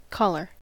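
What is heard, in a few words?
"collar", US English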